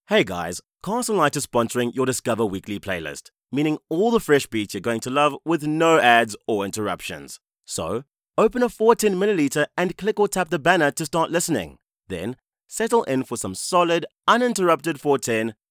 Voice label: english south african; professioneller Voice-Over-Artist und Produzent

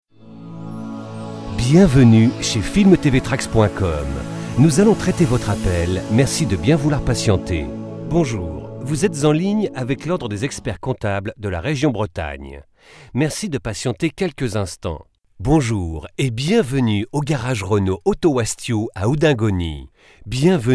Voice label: french ivr

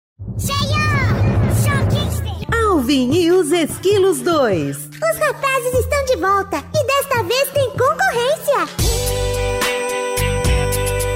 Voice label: portuguese brazilian movie trailers

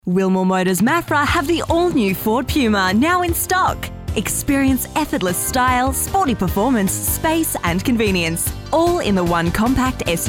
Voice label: english australian automotive

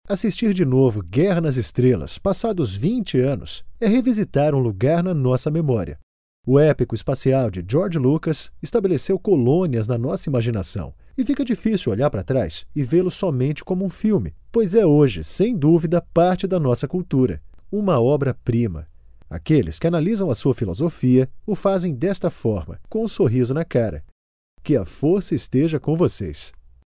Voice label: portuguese brazilian documentaries

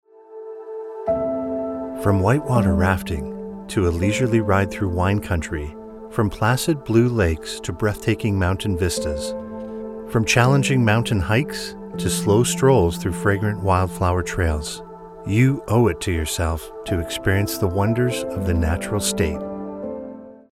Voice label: english canadian

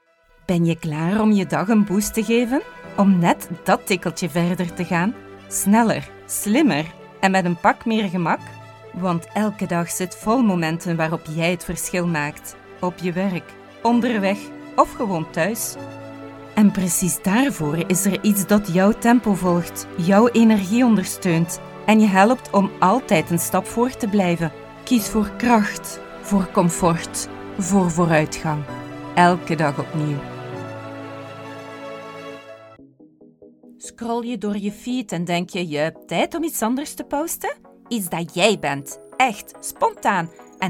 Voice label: flemish commercial, dynamic

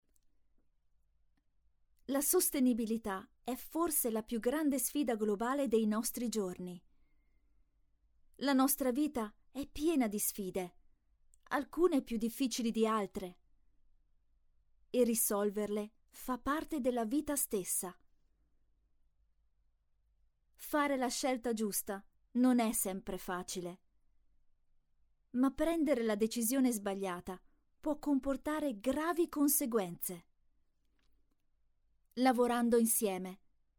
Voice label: italian corporate videos

